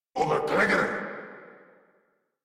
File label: Surprised, Grineer Male